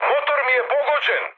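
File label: Serbian damaged engine mood high, speech; War Thunder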